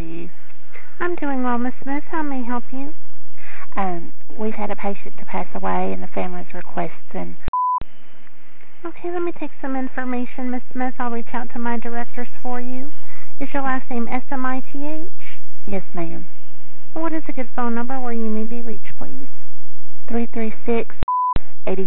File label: Facility Death Call Message Answering Service; FuneralCall